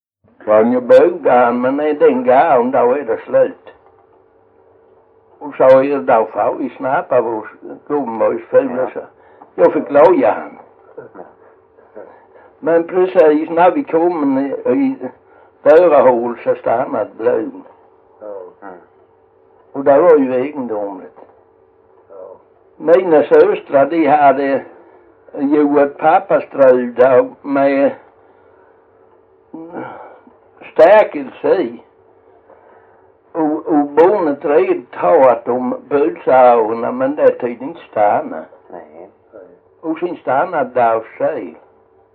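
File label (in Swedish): Jämshög Blekinge, svenska sagor dialekter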